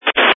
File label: Family Radio Service (FRS)